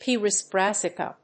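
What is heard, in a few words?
"pieris brassicae", English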